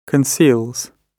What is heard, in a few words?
conceals, gb